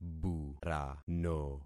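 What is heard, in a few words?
"burano", concatenated syllables; Affixing experiment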